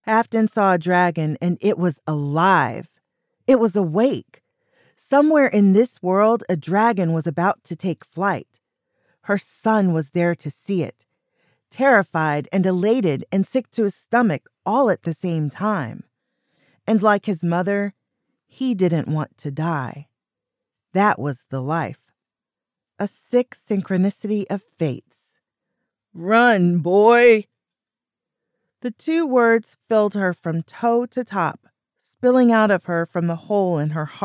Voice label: Fantasy 3rd Person POV